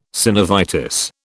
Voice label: "synovitis", British